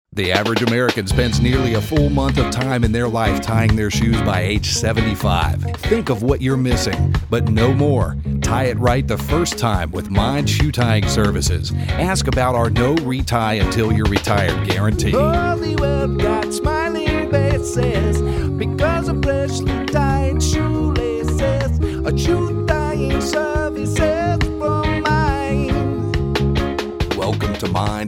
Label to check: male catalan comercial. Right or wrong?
Wrong